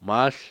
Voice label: "cielo", Cucapá

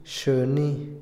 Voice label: ansiedad, Otomí, hombre; Emociones; INALI

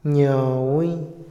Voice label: platicar, Otomí, hombre; Habla; INALI

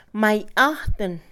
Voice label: Mixe, Mujer; regalo; Posesión; INALI

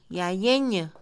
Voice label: Mixe, Mujer; alargar; Acciones; INALI

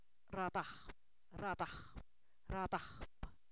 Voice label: cuarto, Zapoteco, san miguel albarradas; numeros

